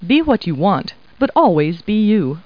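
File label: woman; Talking Clip Words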